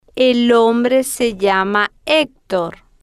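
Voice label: el hombre se llama hector, Spanish, slow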